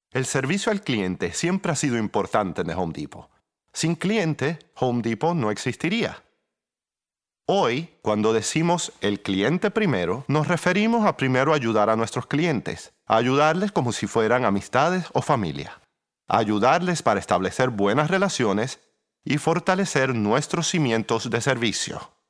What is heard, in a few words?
Spanish Dubbing; Home Depot